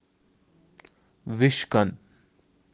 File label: "vishkan", kashmiri